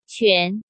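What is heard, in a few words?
"Quán", Chinese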